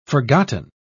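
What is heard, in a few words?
"forgotten", British English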